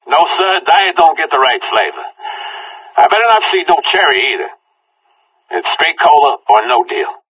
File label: conversation; Left 4 Dead 2; Source Engine; video games; npc; whitaker